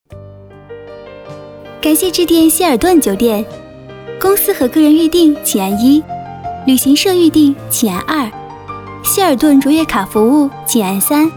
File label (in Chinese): 女声 彩铃 酒店; 专题广告; 国语女声配音